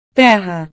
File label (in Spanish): "terra", Portugués; tierra